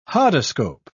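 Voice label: "hodoscope", British English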